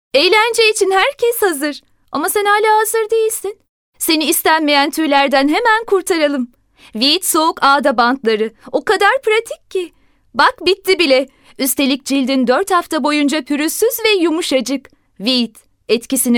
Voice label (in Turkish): GENC KIZ